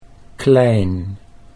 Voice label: "klein", Dutch